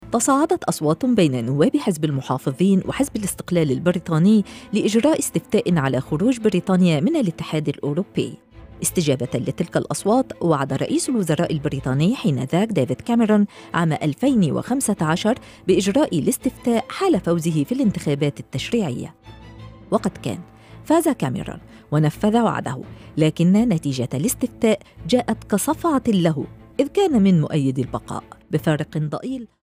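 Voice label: Arabic Standard, female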